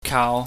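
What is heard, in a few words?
"kaau", Cantonese, mid level tone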